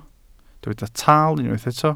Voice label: "taal", Welsh